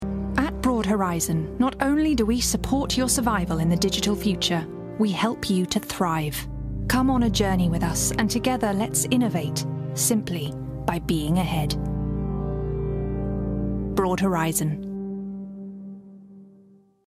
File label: Commercial; Broad Horizon